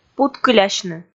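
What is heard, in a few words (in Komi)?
"путкылясьны", Komi, female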